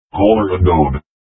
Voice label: Robotic Voice

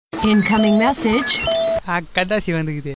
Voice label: Tamil Message

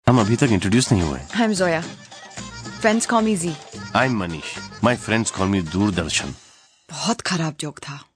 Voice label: Dialog

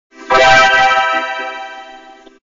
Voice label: Vocoder style; MGS

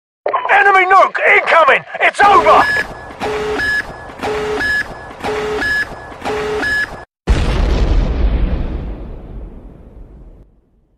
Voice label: British Enemy Nuke

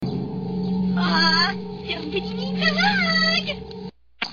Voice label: Grr Needs Hug

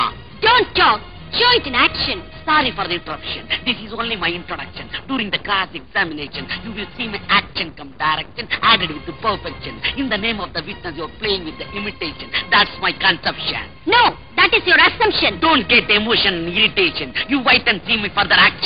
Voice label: Funny English

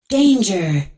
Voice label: Female Robot Danger

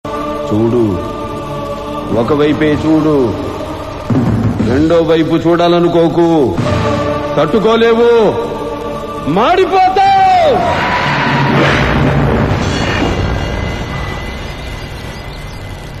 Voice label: Powerful Dialogue

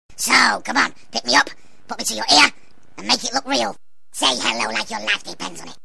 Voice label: Phone Call Practice

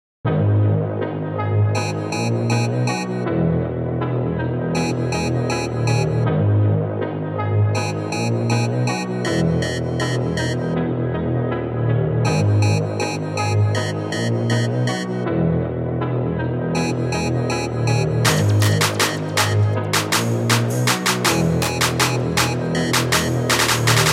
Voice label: Siri sound

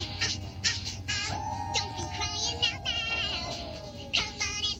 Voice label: Chipmunks wolfman

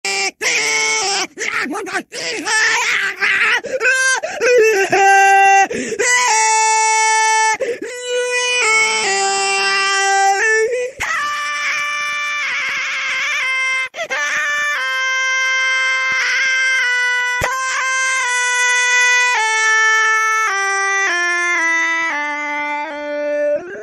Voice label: Autotuned; Zim

